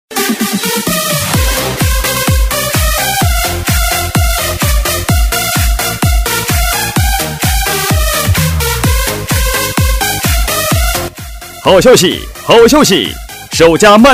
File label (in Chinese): 男, 国语, 大气 磁性, 广告; 曼陀罗装饰